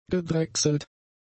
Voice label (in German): "gedrechselt", German; adjectives